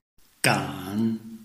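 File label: "gan", Chinese, tone 3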